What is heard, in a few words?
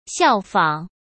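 "效仿", Mandarin Chinese; hsk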